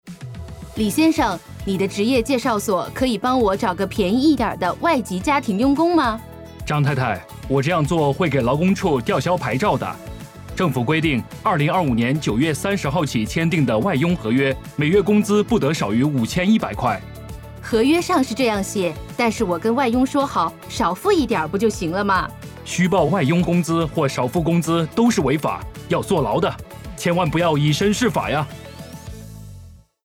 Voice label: Putonghua; Underpaying FDHs is a serious offence